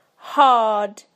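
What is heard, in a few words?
"hard", British English; PictureDictionary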